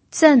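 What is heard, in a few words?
"zen4", Mandarin Chinese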